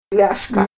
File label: "lashka", Ninilchik Russian